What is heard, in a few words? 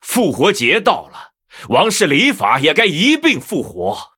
Male Blood Elf HAPPY NOBLEGARDEN; zh